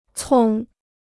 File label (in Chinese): "葱", Chinese